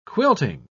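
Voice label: "quilting", British English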